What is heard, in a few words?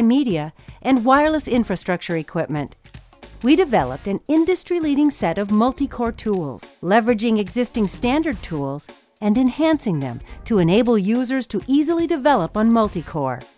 narration high tech